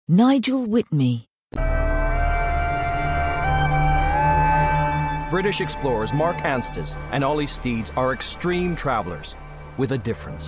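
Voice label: documentary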